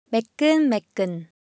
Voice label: Korean, Ideo Phones; Texture

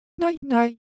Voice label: Korean Sound, ideophones

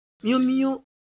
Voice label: Siwu Shape

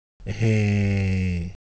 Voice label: Ewe Color Visual, ideophones

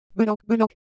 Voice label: Siwu Sound

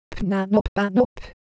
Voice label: Semai Texture; ideophones

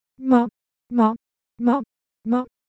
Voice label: Semai Sound; ideophones

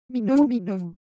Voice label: Korean, Ideo Phones; Motion

